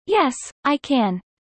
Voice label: fast; studying abroad